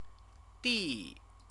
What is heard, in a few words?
"di", Chinese; CTCFL; University of Oxford